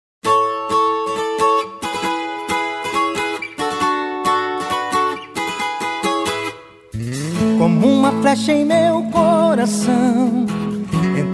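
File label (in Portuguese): telemensagem, male; DIA DOS NAMORADOS MASC; telemensagem dia dos namorados geral masculina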